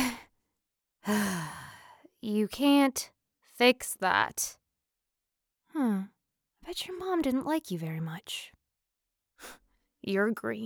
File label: Recruit Nonbinary